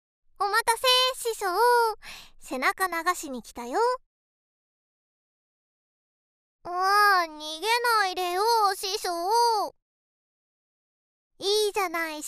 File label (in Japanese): 声のみリバーブなし; めがみそふと; ぼっちでかわいくてほっとけない妖狐の耳かき; 効果音なしver